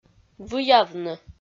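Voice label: "выявны", Komi, female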